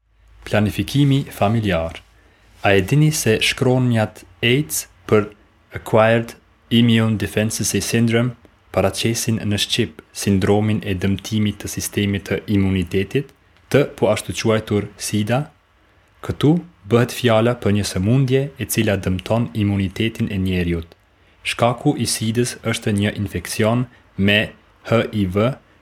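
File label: Albanian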